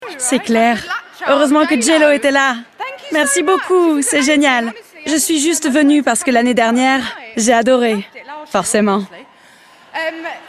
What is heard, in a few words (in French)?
Voice Over